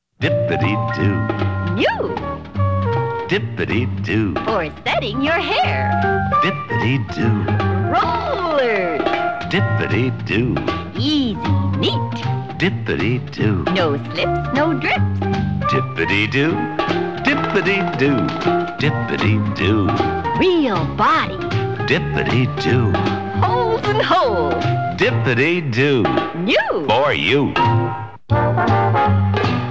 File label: TV Commercials Styling Gel; Dippity Do